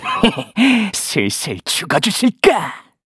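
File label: Vox Skill, Korean; Kibera; kingsraid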